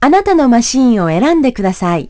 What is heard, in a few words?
machine, announce voice; jpn; Nintendo; July 2020 Gigaleak